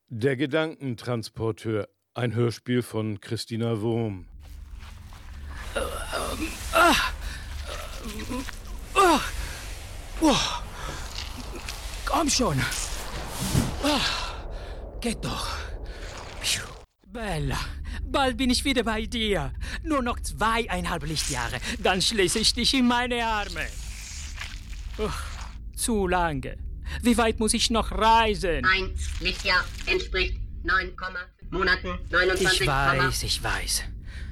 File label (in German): hoerbuch deutsch mit akzent, Deutsch mit italienischem Akzent, Audiobook (Hörbuch)